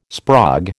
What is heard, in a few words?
"sprog", American